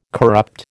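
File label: "corrupt", American English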